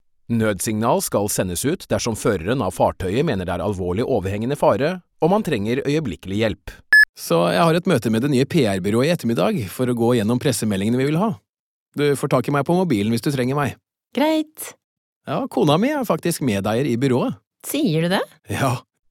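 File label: Norwegian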